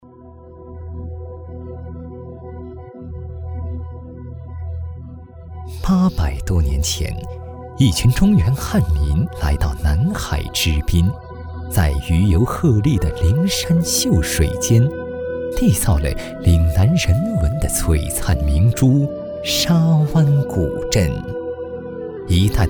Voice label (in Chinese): 神秘 景观 宣传 古镇; 沙湾古镇